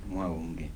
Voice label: "night greeting", Kibembe